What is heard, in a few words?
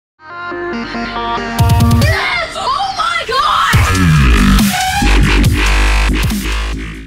omg sound effect, WHAT THE HELL